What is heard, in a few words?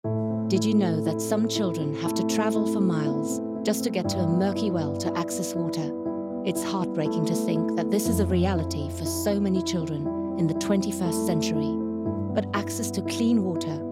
female English with undetectable accent appeal; unicef